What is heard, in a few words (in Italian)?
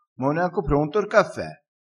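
"se ha furia", dialettale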